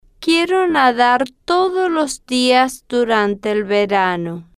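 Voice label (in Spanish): quiero nadar todos los dias durante el verano, spanish, female, slow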